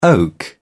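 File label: "oak", English, British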